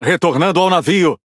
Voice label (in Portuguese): Speech Pilots Torpedo Land, PT BR; World Of Warships